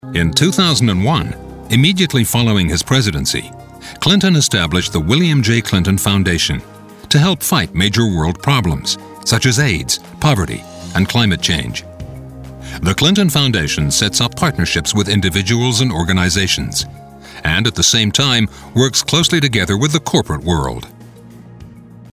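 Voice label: EN M narration, English, male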